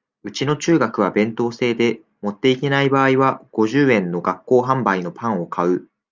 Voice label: japan